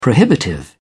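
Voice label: "prohibitive", British English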